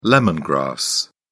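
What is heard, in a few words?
"lemon grass", British English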